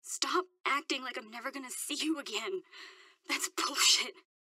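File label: voice through phone balcony; Life is Strange 2; gamesoundopedia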